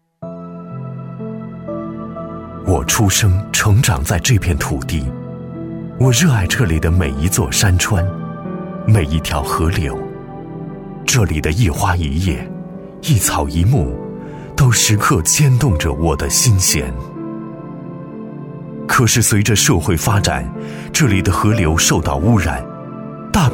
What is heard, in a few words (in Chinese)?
宣传片; 宁夏众森集团